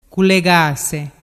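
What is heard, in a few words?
"culegase", Ligurian dialect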